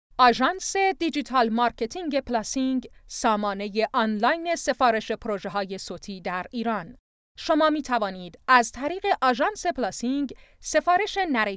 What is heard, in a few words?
narrator